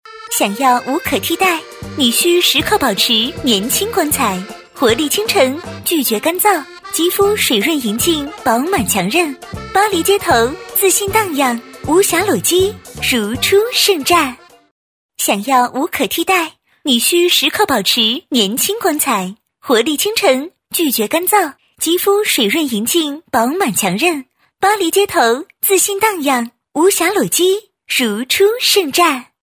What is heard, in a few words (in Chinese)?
宣传片 肌肤补水甜美活泼